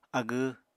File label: "agw", Apatani